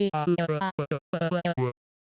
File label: quiet timid medium clear timid; voice archetypes; sage; voxel audio preview